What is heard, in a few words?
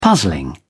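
"puzzling", British English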